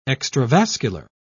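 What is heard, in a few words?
"extravascular", British English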